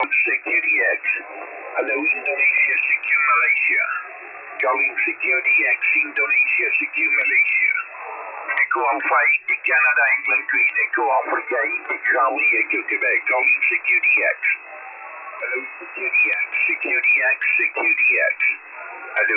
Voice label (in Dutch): zendamateurs USB